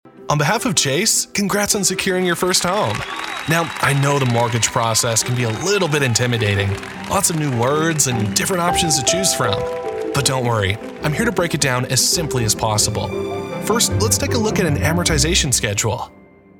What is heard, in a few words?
Explainer; Chase; animation explainer